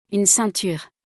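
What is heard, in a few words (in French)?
"Une ceinture", French; vocabulaire; le lexique de l'habillement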